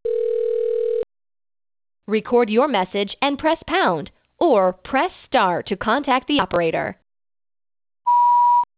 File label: message when channels are busy; 3cx; g700; g530